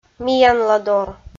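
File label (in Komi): "миянладор", Komi, female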